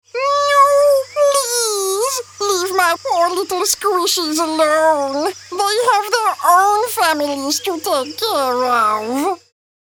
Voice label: Creature, Animation Reel. Playful, Energetic, Characters